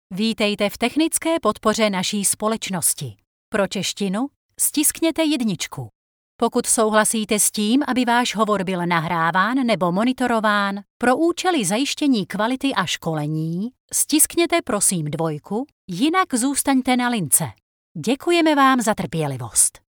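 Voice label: Czech IVR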